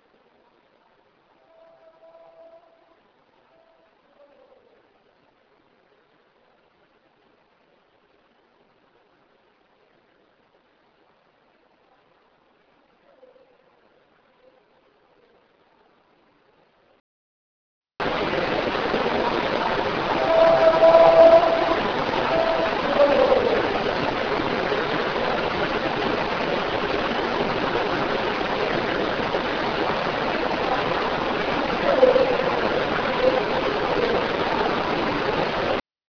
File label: Scary Man from Far Away; A man screaming or shouting from far away, it almost sounds as if he is in pain or crying; Bisbee/Tombstone